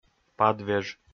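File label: "падвеж", Komi, male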